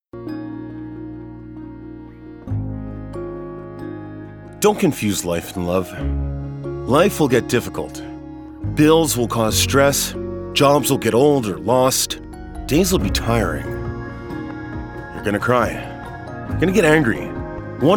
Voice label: Warm Narration